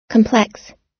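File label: "complex", American English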